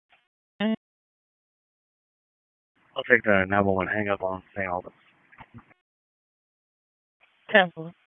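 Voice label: Police North District Dispatch, P25; Raleigh; wake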